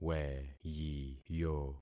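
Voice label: "weyiyo", concatenated syllables; Affixing experiment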